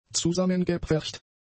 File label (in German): "zusammengepfercht", German; adjectives